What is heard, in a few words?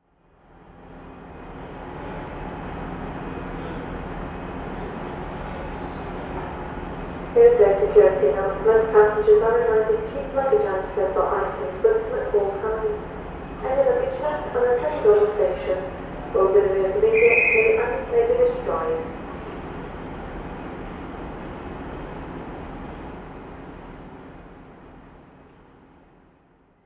Station Security Announcement